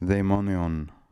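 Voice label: "Daimonion", pronunciations; Fourth Way; Beelzebub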